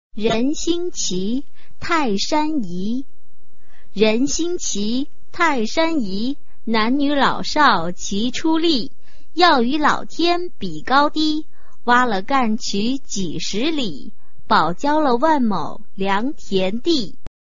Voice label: chinese tongue twister